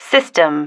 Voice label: "system", female